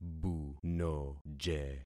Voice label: "bunoje", concat syllables; Affixing experiment

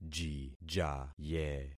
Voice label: "jijaye", concat syllables; Affixing experiment

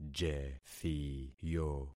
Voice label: "jethiyo", concat syllables; Affixing experiment

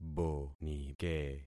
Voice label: "bonike", concat syllables; Affixing experiment

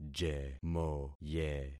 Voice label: "jemoye", concat syllables; Affixing experiment

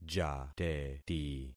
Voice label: "jateti", concat syllables; Affixing experiment

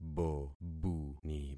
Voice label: "bobuni", concat syllables; Affixing experiment

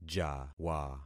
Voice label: "jawa", concat syllables; Affixing experiment

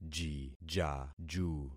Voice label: "jijaju", concat syllables; Affixing experiment

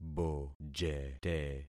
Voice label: "bojete", concat syllables; Affixing experiment

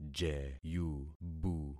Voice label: "jeyubu", concat syllables; Affixing experiment

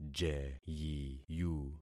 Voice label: "jeyiyu", concat syllables; Affixing experiment

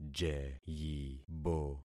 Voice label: "jeyibo", concat syllables; Affixing experiment

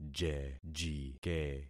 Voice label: "jejike", concat syllables; Affixing experiment

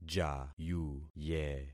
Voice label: "jayuye", concat syllables; Affixing experiment

